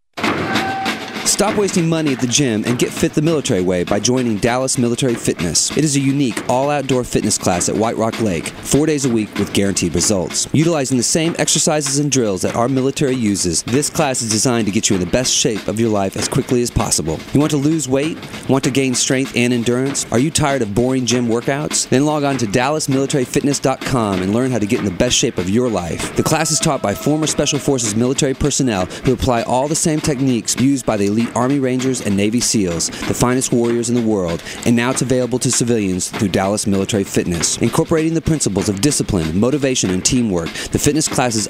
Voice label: Radio Ad; Dallas Military Fitness